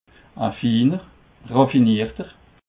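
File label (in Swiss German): "finer", Haut Rhin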